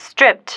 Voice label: "stripped", female

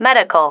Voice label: "medical", female

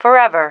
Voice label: "forever", female

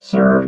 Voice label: "served", robot

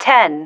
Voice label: "ten", female

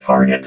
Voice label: "target", robot

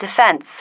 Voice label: "defence", female

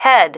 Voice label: "head", female

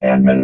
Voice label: "admin", vox robot

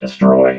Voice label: "destroy", robot vox

